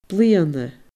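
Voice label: "bliadhna", Scottish Gaelic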